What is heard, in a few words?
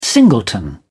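"singleton", British English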